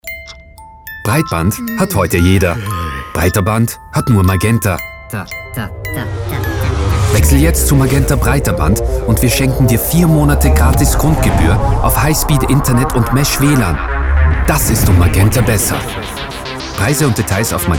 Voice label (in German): Werbung; MAGENTA